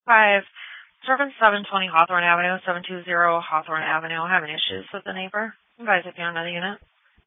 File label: Police Dispatch; sdr